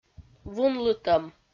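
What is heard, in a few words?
"вунлытӧм", Komi, female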